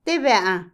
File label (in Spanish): "qué", Otomí, mujer; Cognición; Vocabulary; INALI